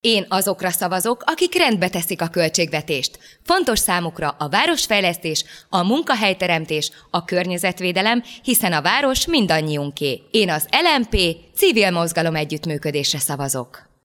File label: radio spot